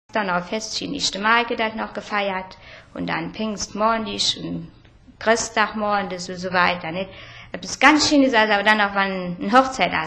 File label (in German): Moselfraenkisch, Mitteldeutsch